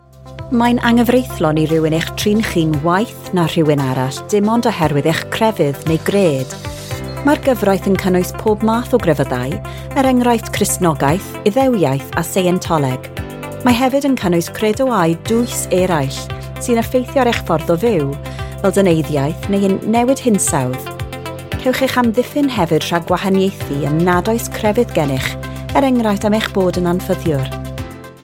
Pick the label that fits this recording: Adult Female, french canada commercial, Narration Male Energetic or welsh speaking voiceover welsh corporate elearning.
welsh speaking voiceover welsh corporate elearning